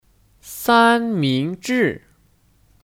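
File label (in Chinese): "三明治" Chinese; Sānmíngzhì; Kata benda; Sandwich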